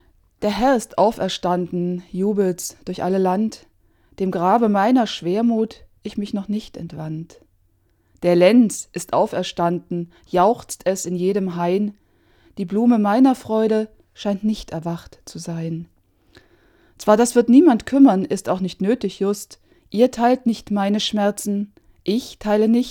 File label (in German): Die Audiofassung; Ludwig Bechstein; Ostern; Der Herr ist auferstanden!